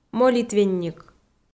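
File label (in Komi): "молитвенник", Komi, female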